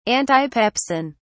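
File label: "antipepsin", British English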